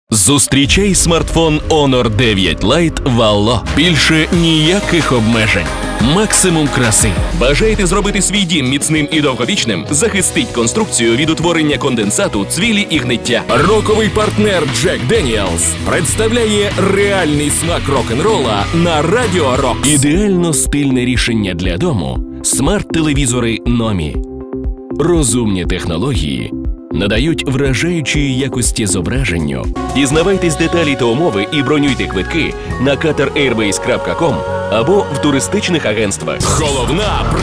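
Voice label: Ukrainian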